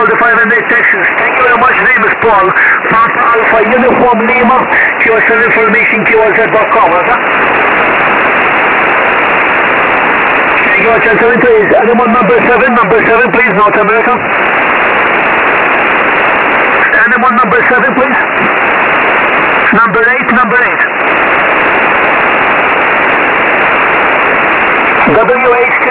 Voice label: usa pileup